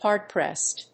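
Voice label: "hardpressed", English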